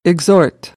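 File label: "exhort", American English; AmericanBritish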